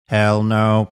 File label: hell no robotic